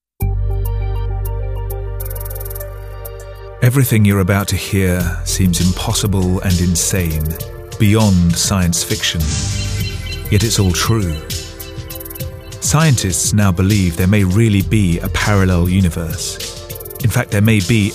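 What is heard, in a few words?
narration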